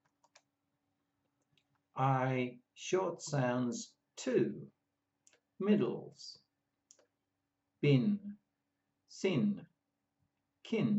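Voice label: I short sounds, pronunciation of australian english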